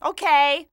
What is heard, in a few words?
"ok", woman; notification